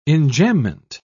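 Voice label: "enjambement", British English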